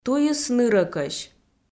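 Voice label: "туйыс нырӧкӧсь", Komi, female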